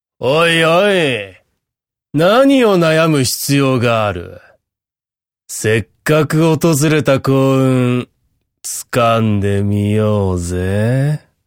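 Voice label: narration male natural; m warumono tyoiwaru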